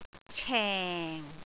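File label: "ceng4", Cantonese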